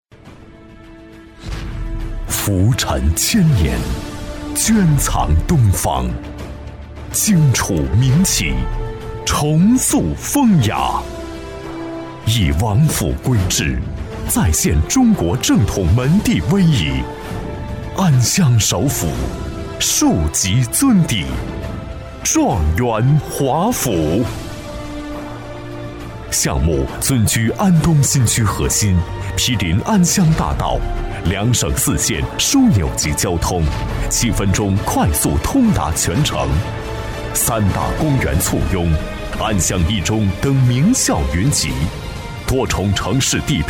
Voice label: 房产广告; 状元华府

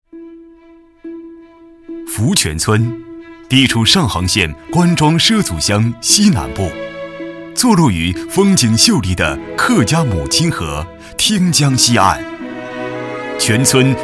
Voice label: 男 专题, 国语男声配音

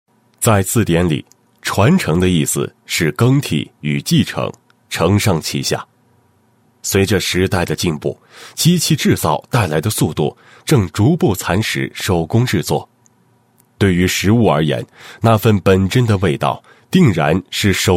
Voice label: 男 专题, 小吃宣传; 传承的味道 福州小吃宣传

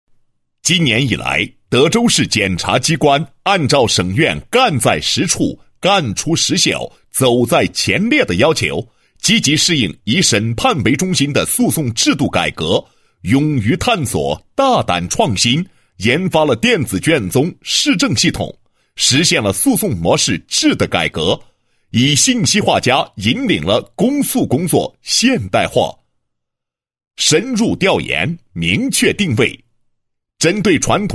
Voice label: 男 检察机关; 变革的力量 普法工作

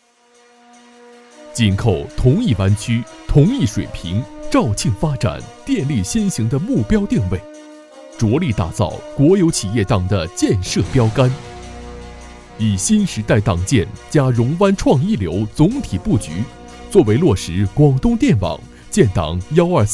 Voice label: 男,专题; 广东电网党建

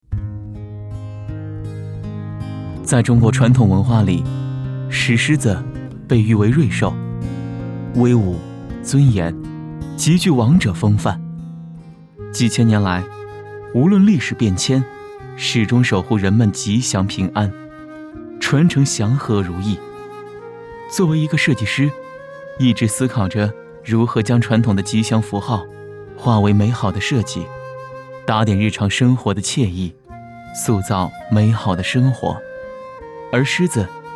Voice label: 男, 纪录片讲述

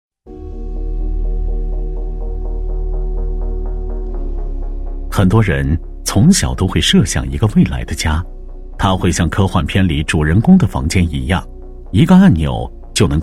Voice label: 男25 专题 讲述, 国语男声配音

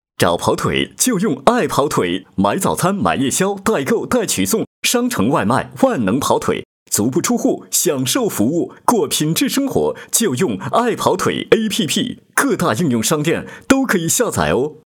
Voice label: 男 广告; 爱跑腿APP

